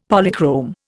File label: "Polychrome", Indian; Dictionary